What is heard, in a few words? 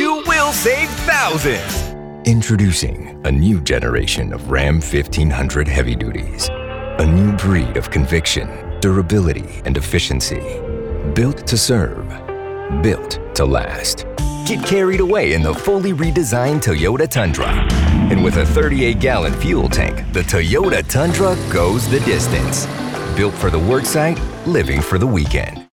english american automotive